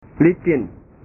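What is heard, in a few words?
"licin", Indonesian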